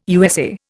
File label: "USA", Indian